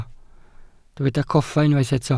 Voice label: "coffa", Welsh

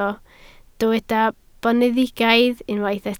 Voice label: "boneddigaidd", Welsh

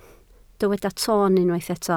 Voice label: "toon", Welsh

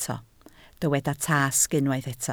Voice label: "tasg", Welsh

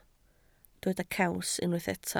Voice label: "caws", Welsh

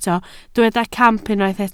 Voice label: "camp", Welsh

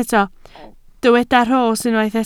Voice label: "rhos", Welsh

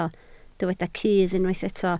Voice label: "cudd", Welsh

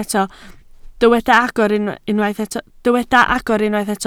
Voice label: "agor", Welsh

